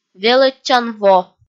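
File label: "велӧдчан во", Komi-Zyrian, female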